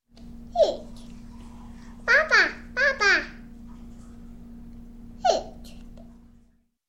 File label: Baby Talking, Human; Sound Ideas 2000 Series